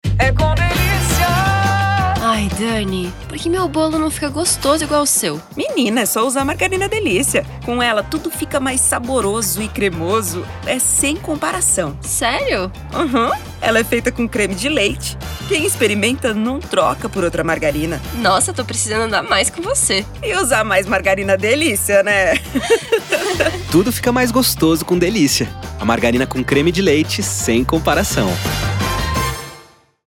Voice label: Spot; Delicia Amigas 30s

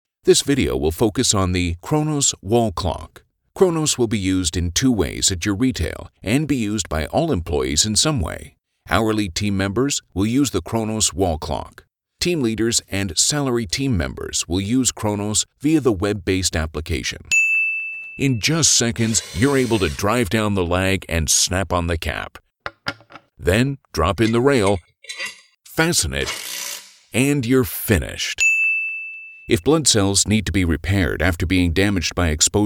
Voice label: E-LEARNING

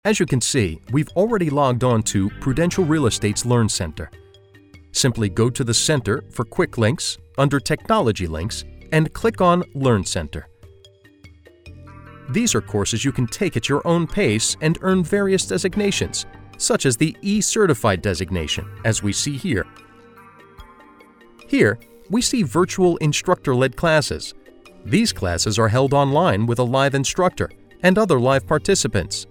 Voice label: E-Learning

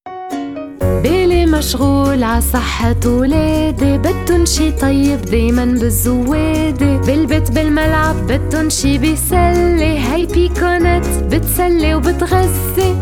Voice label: Lebanese Radio Spot With Singing Cheese; Piconnettes

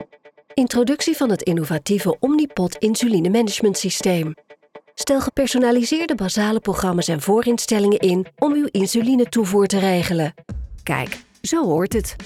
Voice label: CORPORATE Voice

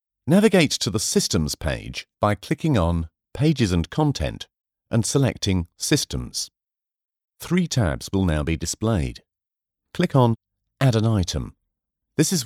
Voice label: E-learning samples

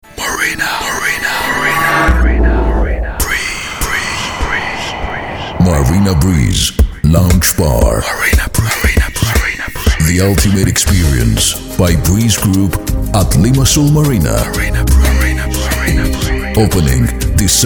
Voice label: Greek Radio Spot English with accent

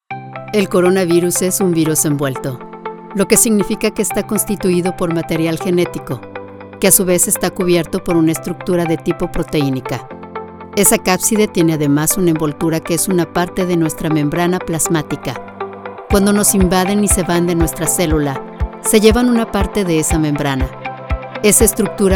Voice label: Elearning in Spanish

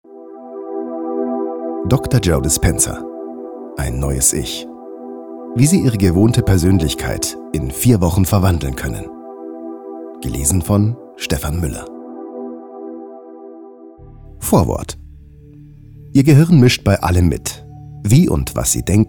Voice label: Audiobook; Ein neues ich

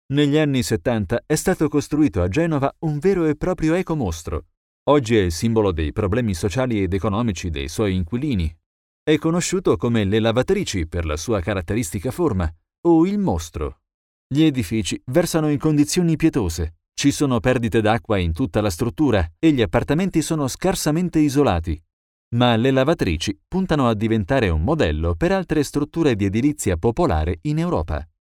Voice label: European Informative Video IT

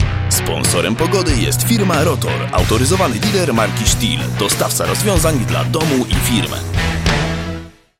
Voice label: Sponsorhip Billboard

